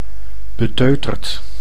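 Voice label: "beteuterd", Dutch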